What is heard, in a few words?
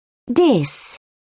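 "diss", British English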